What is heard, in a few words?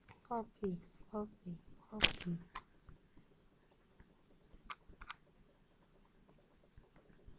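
"puppy", spoken word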